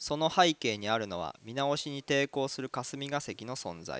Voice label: japanese; GlobalPhone